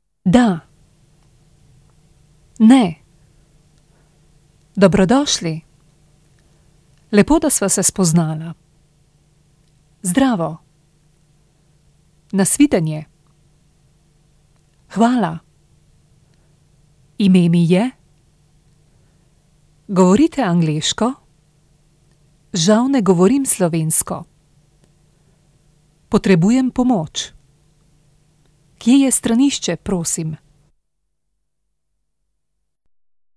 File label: Slovenian